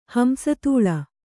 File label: "ಹಂಸತೂಳ", kannada